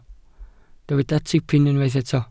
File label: "tipyn", Welsh